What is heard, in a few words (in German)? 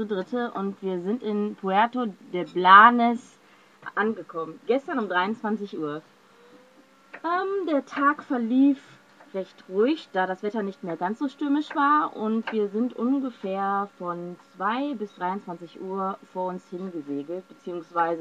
bordfunk